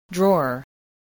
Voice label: "drawer", spelling